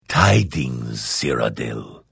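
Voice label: Hello, Vo; Morrowind GOTY GOG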